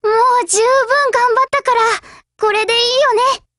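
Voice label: operation over, Japanese; GF Alarm; T65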